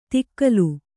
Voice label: "ತಿಕ್ಕಲು", Kannada